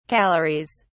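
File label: "calories", British English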